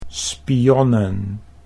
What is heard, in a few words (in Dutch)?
"spionnen", Dutch